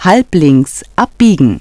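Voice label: halblinks abbiegen, German; Navigation; DISTEFORA Navigation; Mobile Navigator Notebook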